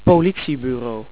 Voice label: police, dutch

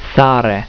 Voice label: "sare", romanian

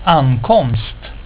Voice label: "ankomst", Swedish; reizen

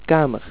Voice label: room, dutch

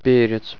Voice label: pepper, russian

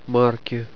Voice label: "stamps" Russian

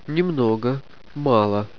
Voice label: little, russian

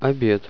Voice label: lunch, russian

